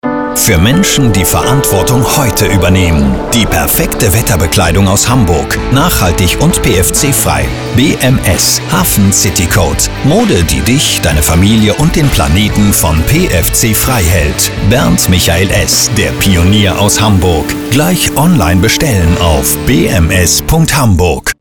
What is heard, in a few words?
Radio SPOT; BMS